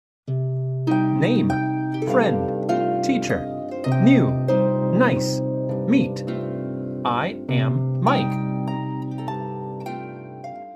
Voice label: 外籍英男 单词 课件

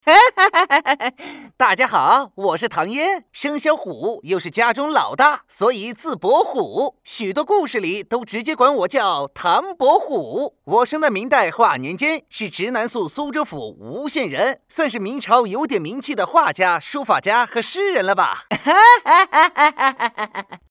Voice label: 男-模仿; 周星驰; 唐伯虎

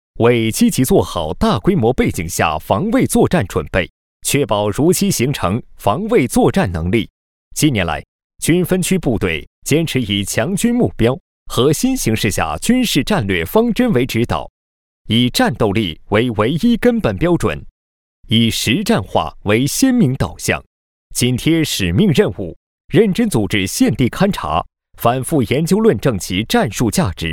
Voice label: 男, 专题, 部队题材

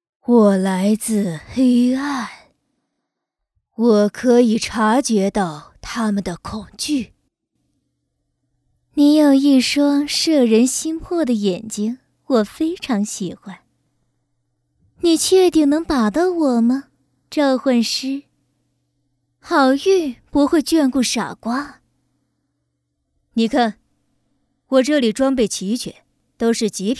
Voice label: 女, 游戏样音

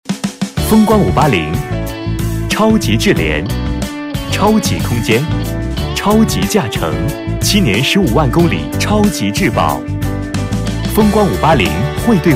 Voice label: 男 青春; 东风风光580

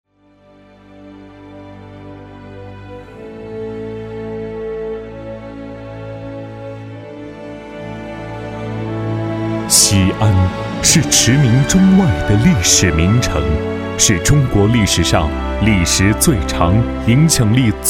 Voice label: 男 海绵城市 大气宣传; 西安

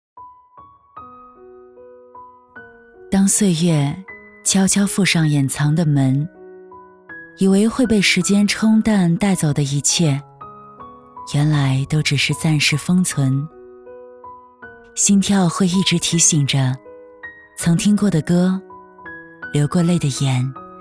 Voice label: 微电影, 朴实亲切; 同学聚会

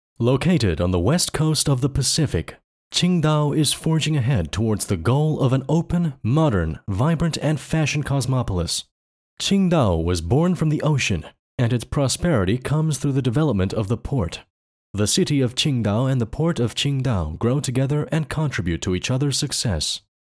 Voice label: 英文 内陆推介片; 英文新改内陆推介片翻译稿

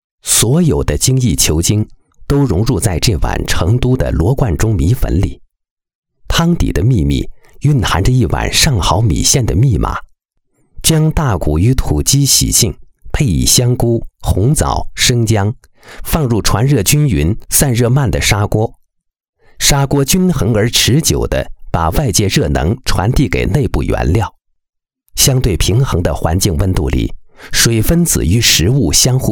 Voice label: 男; 罗贯中

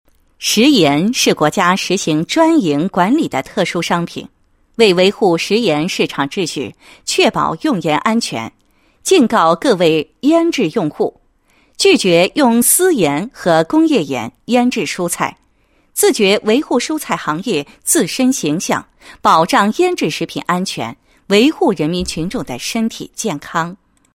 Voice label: 女-告知书-拒绝用私盐和工业盐腌制蔬菜, 配音案例

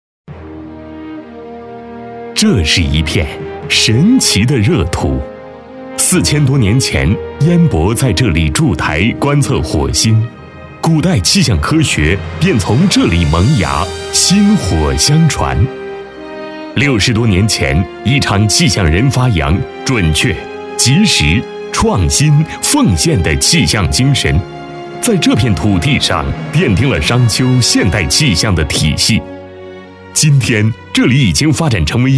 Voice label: 男 专题; 商丘市气象局

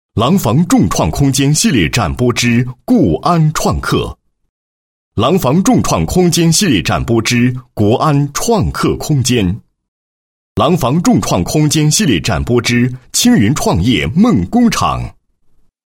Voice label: 男; 百众创空间

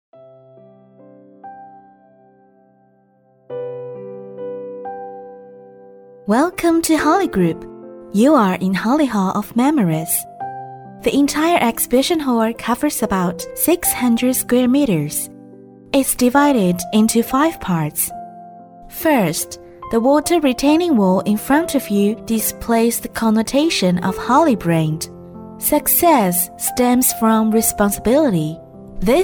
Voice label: 女 英文专题 柔和

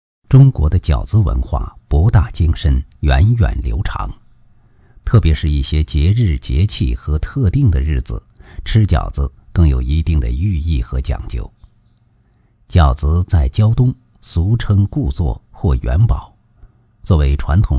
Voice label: 男 亲切 随和, 磁性浑厚抒情; 物产专题：饺子

